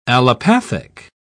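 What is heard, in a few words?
"allopathic", British English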